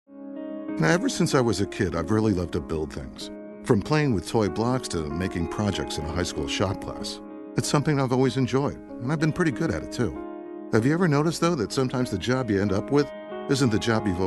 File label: friendly